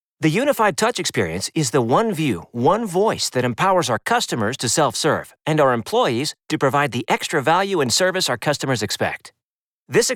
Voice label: eLearning and Training